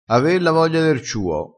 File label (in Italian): "ave la voglia", crespinese